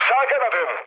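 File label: Turkish right wing, mood low, speech; War Thunder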